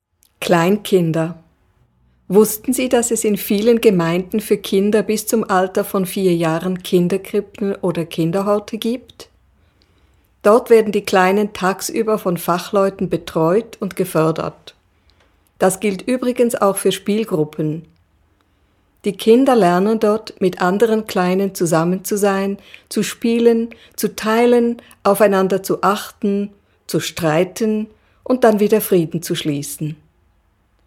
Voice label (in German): deutsch